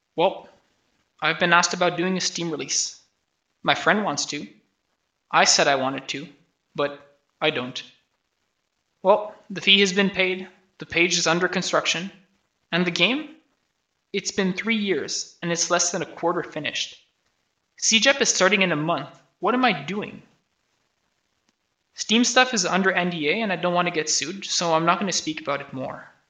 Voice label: voice, narration